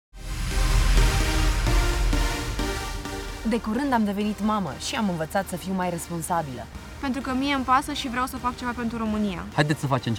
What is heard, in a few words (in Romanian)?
spot radio; voteaza